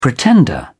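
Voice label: "pretender", British English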